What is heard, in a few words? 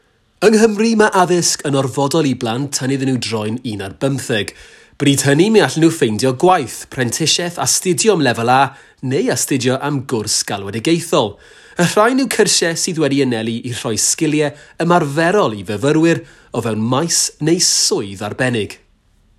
Welsh